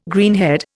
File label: "greenhead", Indian